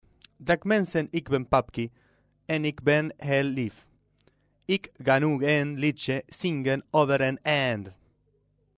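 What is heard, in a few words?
pseudo dutch, voice clips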